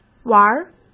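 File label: "玩儿", Mandarin Chinese; chinese flashcards; hsk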